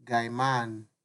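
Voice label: "guy man", Nigerian Pidgin, male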